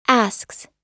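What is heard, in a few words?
"asks", American English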